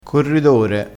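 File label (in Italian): "corridore", vocabolario dialettale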